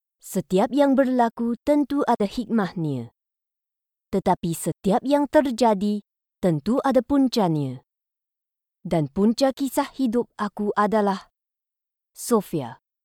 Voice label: Audiobook Sample